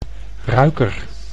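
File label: "ruiker", Dutch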